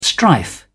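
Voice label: "strife", English, British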